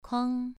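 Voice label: "kuang", Mandarin Chinese, second tone, pronunciation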